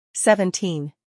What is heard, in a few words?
"seventeen", English